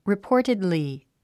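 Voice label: "reportedly", English word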